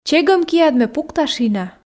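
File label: чегӧм киад ме пукта шина, Komi, female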